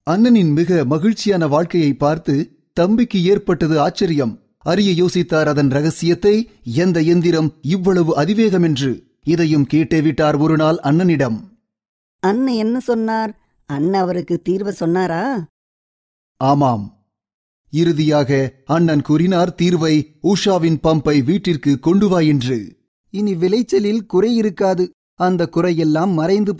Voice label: Tamil narrative

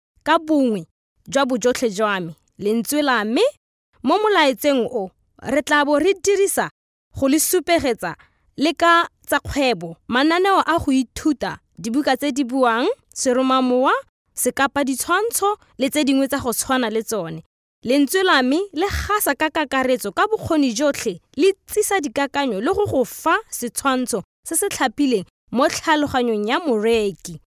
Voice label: Setswana

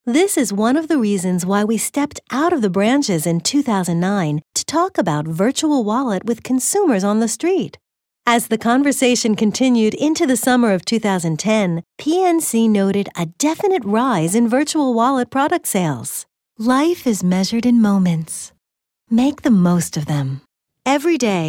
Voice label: USA

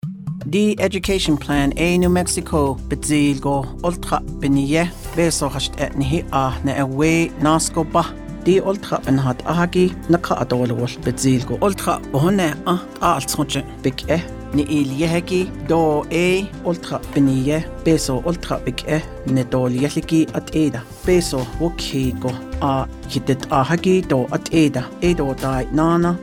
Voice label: Navajo

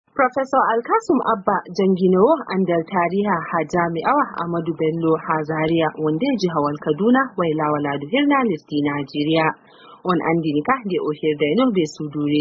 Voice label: Fulani

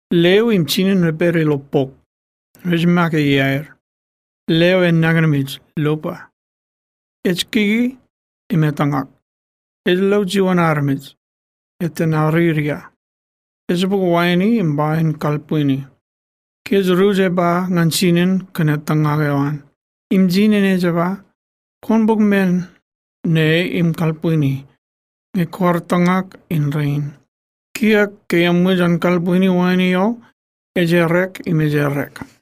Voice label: Marshallese

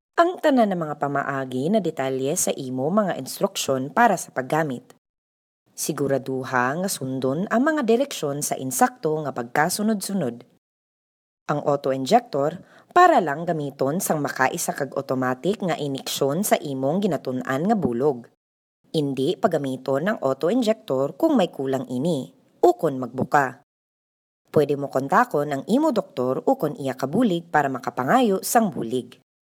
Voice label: Hiligaynon

